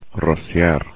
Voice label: "rociar", spanish; infinitive